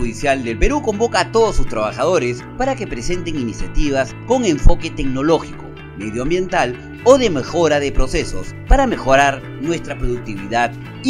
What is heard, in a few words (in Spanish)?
Anuncio Concurso; SCAP PRODUCCIONES